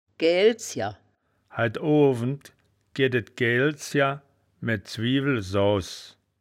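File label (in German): "gaellzjer", wittlicher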